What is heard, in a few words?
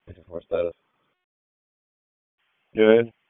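Police District Dispatch, P25; Raleigh; Northeast; wake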